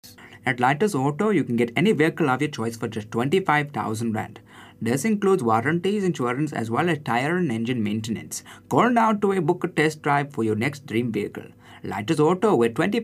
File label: indian accent